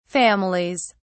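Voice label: "families", English, British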